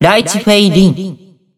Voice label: System Voice Character Select; BBCF; Hibiki Kohaku; Litchi Faye-Ling